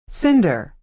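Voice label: "cinder" American English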